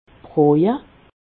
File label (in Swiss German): "broje", alsace